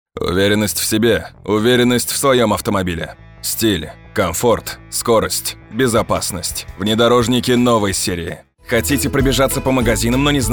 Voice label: Rus